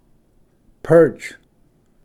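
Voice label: "perch", English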